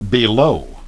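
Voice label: "below", English pronunciation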